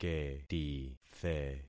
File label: "ketithe", concat syllables; Affixing experiment